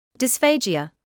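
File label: "dysphagia", British English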